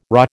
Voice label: "rah", American

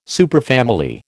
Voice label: "superfamily", American

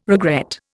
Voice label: "regret", Indian

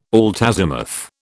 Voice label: "altazimuth", British

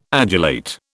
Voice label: "adulate", British

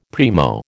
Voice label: "primo", American

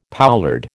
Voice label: "poulard", American

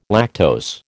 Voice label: "lactose", American

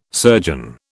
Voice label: "surgeon", British

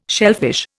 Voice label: "shellfish", Indian

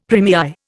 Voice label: "première", Indian; Dictionary

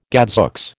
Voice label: "gadzooks", American

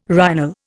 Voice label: "rhinal", Indian

